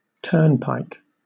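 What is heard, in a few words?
"turnpike", British English; pronunciations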